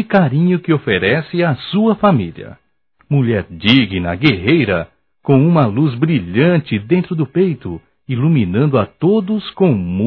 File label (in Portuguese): Dia das Mães Masculino, Só Voz; Telemensagens; Telemensagens 2017